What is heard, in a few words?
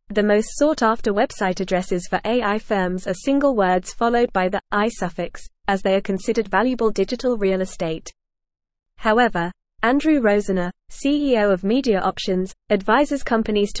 English Newsroom Advanced FAST Reading Rising Costs of AI Domain Names Reflect Industry